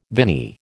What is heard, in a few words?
"viny", American